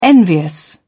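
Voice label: "envious", English, British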